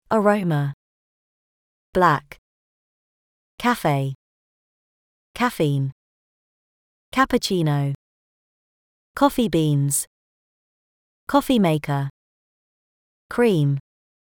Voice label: uk coffee

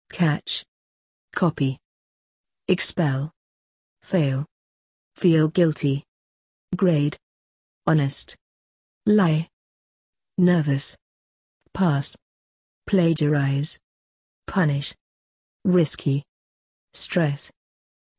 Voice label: uk cheating

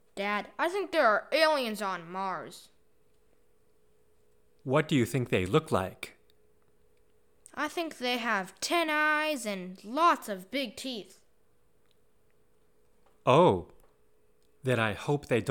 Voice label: aliens dialog

